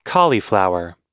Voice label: "cauliflower", us